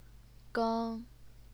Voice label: "geng1", chinese pinyin

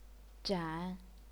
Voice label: "zhan3", chinese pinyin

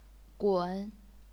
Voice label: "gun3", chinese pinyin

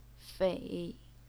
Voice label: "fei3", chinese pinyin

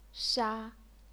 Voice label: "sha1", chinese pinyin

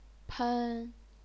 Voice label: "pen1", chinese pinyin

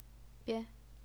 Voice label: "bian0", Chinese pinyin

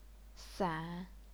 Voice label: "san3", chinese pinyin

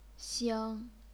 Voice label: "xing1", chinese pinyin; 星; 腥; 猩; 惺; 兴

